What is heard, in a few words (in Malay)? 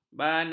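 "banat", Malay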